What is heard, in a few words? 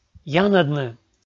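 "янӧдны", Komi, male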